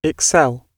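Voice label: "excel", British English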